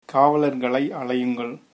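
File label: police, tamil, male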